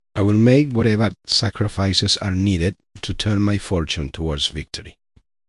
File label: upset; balam